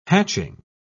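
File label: "hatching", British English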